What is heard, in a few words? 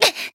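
Voice damaged, Japanese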